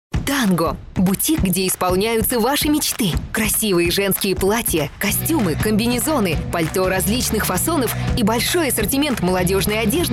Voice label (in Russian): reklama